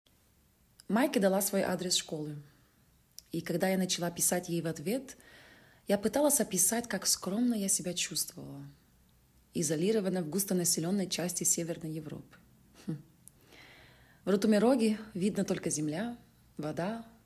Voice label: Russian VO